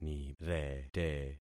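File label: "nirete", concatenated syllables; Affixing experiment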